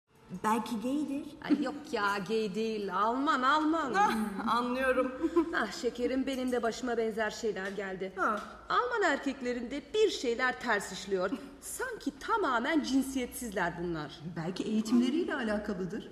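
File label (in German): wdr türkisch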